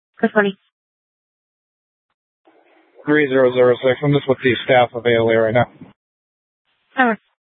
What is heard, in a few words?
Gilbert Police Dispatch